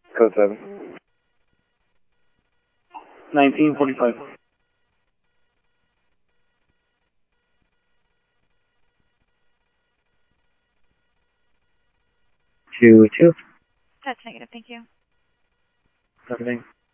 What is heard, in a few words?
scanner audio trunk; ASU; ASU Park 1